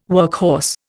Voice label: "workhorse", Indian